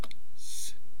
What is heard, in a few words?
"s", East Cree syllabics